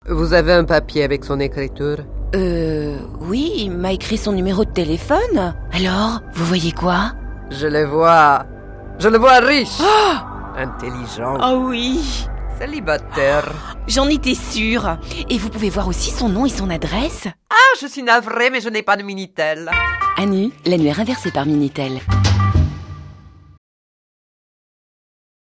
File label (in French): pub radio; 3617 annu